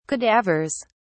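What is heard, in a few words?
"cadavers", British English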